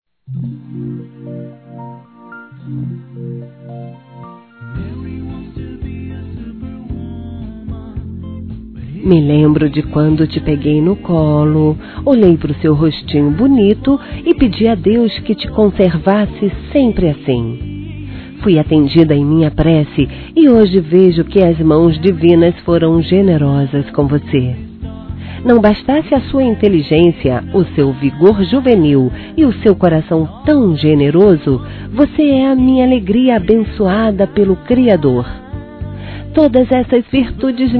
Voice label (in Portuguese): Afilhado (a) Adolescente Feminino, Telemensagens Aniversários; Aniversário Infantil